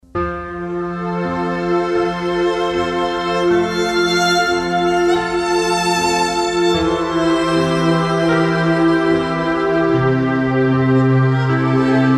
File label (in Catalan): POEMA música